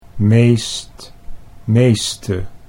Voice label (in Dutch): "meest meeste", Dutch